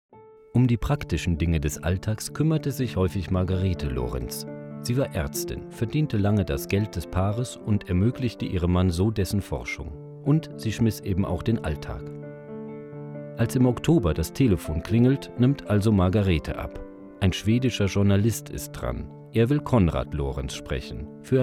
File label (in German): Radio